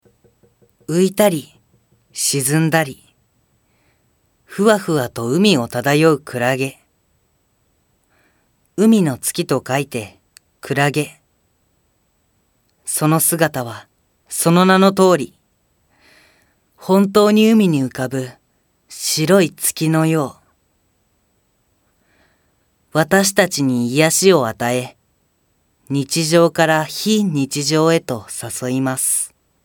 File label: narration